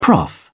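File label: "prof", English, British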